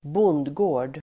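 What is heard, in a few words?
"bondgård", Swedish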